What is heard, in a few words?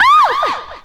"oh" female ouch; ooh ahh